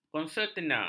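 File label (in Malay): "konsertina", Malay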